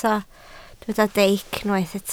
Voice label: "deic", Welsh